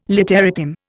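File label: "literatim", Indian English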